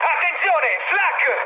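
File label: Italian damaged aa mood high, speech; War Thunder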